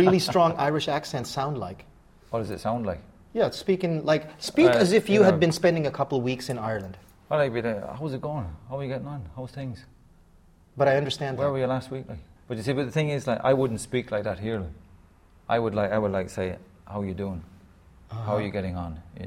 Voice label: Accents